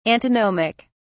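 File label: "antinomic", British English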